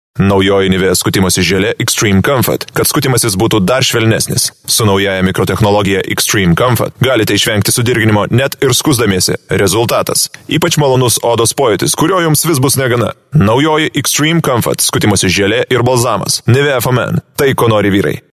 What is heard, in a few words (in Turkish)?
Litvanca Erkek, seslendirme; erkek sesler